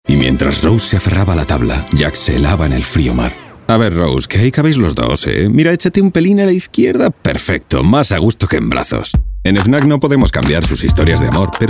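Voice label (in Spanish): generico España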